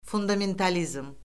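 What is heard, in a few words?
"fundamentalizm", Azerbaijani; Orfoqrafiya Lüğəti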